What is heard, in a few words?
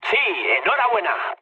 player killed enemy, mood low, speech; War Thunder